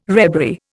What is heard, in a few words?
"rebury", Indian